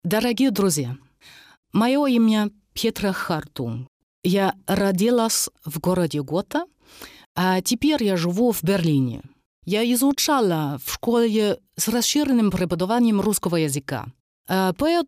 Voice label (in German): Russisch